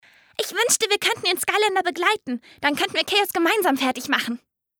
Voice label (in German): Charakter suess